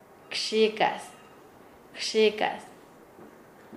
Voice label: "chistoso", Nasa Yuwe; paez